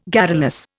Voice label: "garrulous", Indian